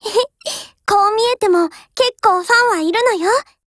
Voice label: win, JP; m45